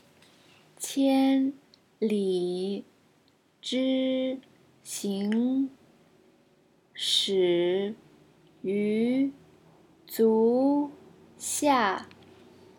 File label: free chinese learning chinese proverb